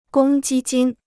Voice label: "公积金", Chinese

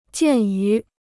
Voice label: "鉴于", Chinese

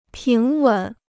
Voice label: "平稳", Chinese

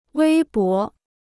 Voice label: "微博", Chinese

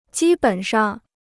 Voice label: "基本上", Chinese

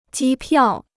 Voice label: "机票", Chinese

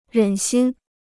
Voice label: "忍心", Chinese

